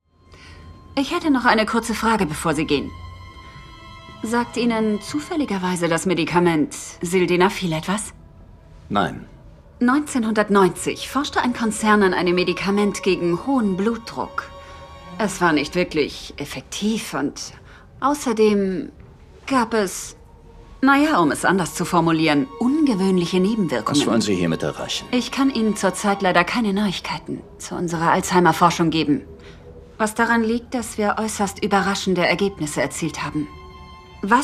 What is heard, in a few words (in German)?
synchron hauptrolle; elixier